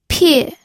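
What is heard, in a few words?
"pie", Mandarin Chinese, fourth tone; pinyin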